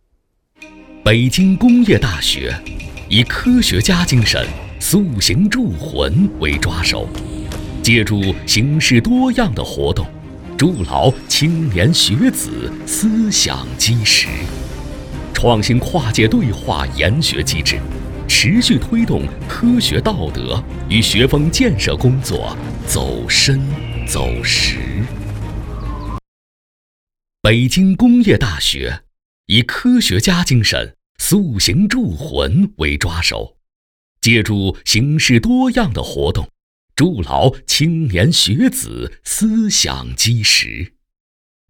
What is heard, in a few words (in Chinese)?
男 大学学校宣传; 北京工业大学